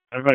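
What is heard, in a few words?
Wake Forest Police Dispatch, p25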